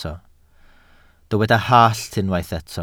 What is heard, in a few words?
"hallt", Welsh